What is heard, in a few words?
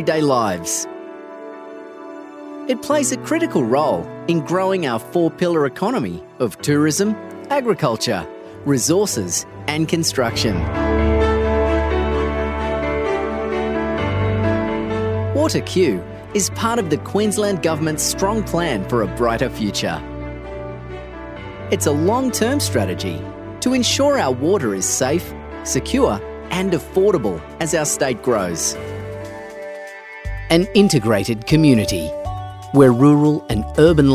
Corporate